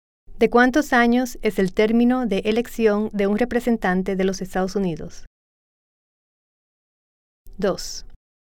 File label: Spanish